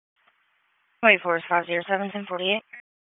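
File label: Wake Forest Police Dispatch, p25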